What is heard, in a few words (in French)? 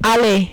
"haler", French